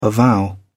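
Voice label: "avow", British English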